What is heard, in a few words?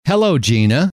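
"hello", male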